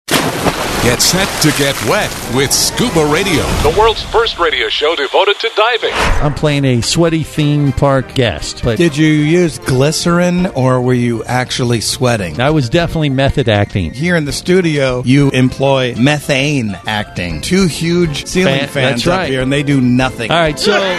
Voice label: Promo